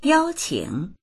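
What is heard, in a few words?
"yao1qing3", Mandarin Chinese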